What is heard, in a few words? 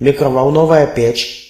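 microwave desc, Russian; household; stamps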